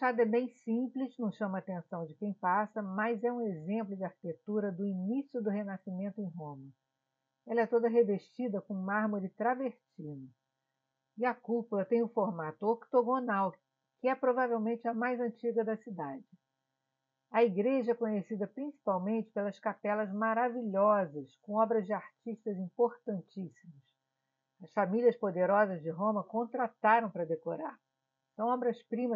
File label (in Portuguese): Audioguia Santa Maria del Popolo Caravaggio